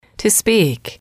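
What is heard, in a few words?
"to speak", English, female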